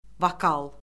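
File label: "vokal", Azerbaijani; Orfoqrafiya Lüğəti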